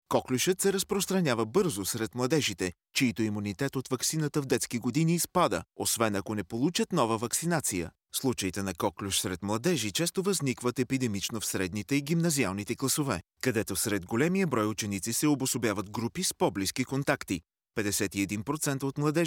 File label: bulgarian narration